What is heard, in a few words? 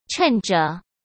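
"趁着", Mandarin Chinese; hsk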